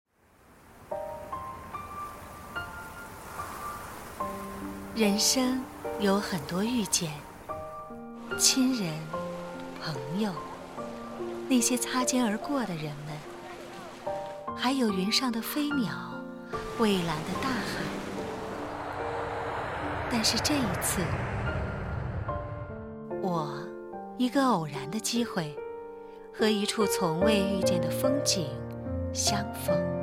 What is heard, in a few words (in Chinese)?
宣传; 遇见红卫